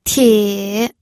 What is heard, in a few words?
"tie3", pinyin